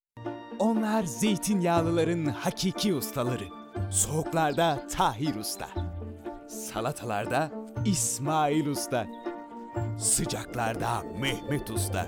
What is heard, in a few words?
komili, Turkish, Male